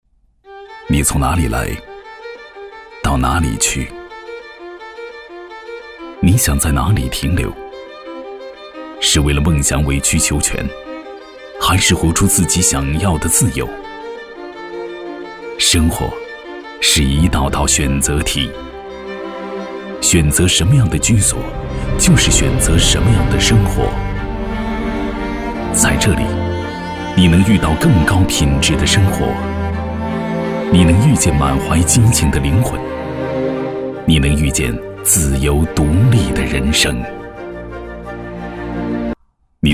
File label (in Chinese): 男 地产旁白 有力; 悦立方